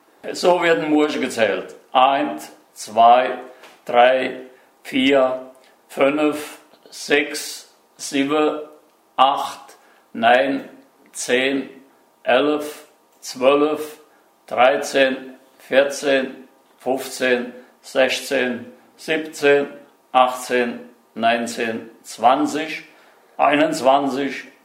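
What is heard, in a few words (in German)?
muhrcher zahle, Hunsrück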